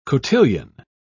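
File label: "cotillion", British English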